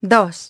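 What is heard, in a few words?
"two", English; iOS 4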